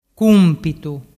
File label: "cumpitu", dialettu